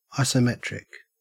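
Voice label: "isometric", Australian English; pronunciations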